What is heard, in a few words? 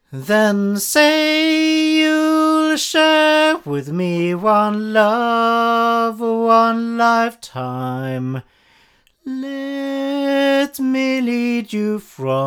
Blue Yeti Pro 8 male